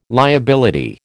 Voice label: "liability", American

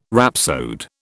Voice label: "rhapsode", British

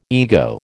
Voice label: "ego", American

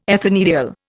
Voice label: "ethanediol", Indian

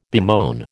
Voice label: "bemoan", American

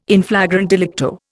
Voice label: "in flagrante delicto", Indian

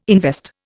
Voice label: "invest", Indian

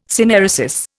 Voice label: "synaeresis", Indian

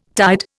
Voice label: "tied", Indian

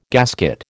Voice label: "gasket", American

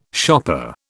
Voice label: "shopper", British

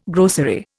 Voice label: "grocery", Indian

